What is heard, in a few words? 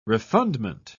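"refundment", British English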